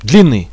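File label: on the long one, radio, Russian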